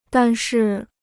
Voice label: "但是", Chinese

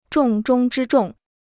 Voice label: "重中之重", Chinese

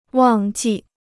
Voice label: "忘记", Chinese

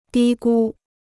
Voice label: "低估", Chinese

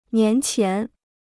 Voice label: "年前", Chinese

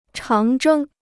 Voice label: "长征", Chinese

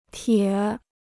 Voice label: "铁", Chinese

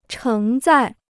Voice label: "承载", Chinese

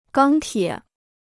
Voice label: "钢铁", Chinese

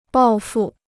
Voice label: "抱负", Chinese

